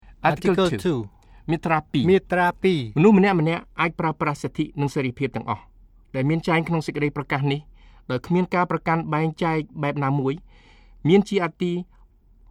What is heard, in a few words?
Khmer duo